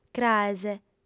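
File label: "craes", female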